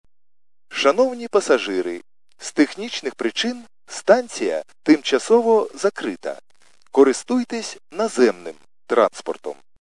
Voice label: khs st male, subway station